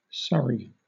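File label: "surrey", British English; pronunciations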